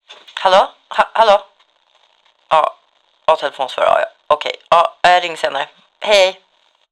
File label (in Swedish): Telefonsvarare; Telenor; MissedCalls